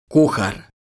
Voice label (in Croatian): "kuhar", Croatian